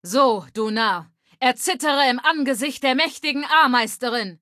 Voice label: Female adult default battle

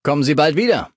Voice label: Male adult default dialogue goodbye; Fallout 3: Audiodialoge

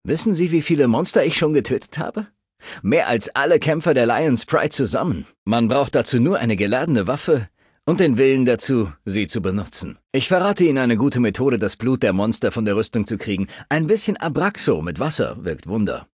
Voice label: Male adult default dialogue

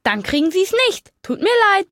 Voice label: Male unique dialogue

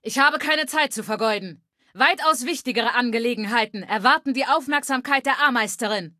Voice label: Female adult default greeting

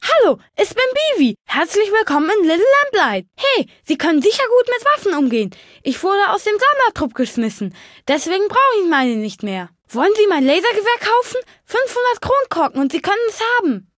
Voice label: Male unique dialogue greeting

